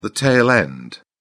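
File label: "tail end", British English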